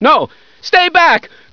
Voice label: look shooting, hostage reactions; czero opforce